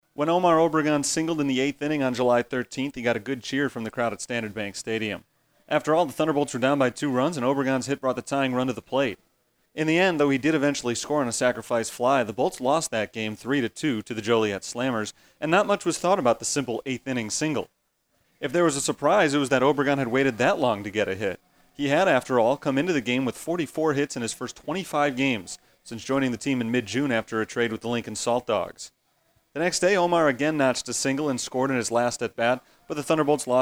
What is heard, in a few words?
podcast